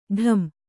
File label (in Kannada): "ಢಂ", kannada